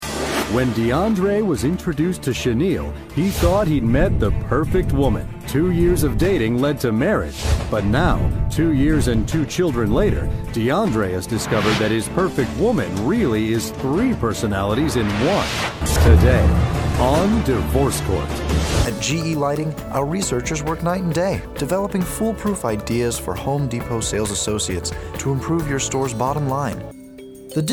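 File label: industrial, Voiceover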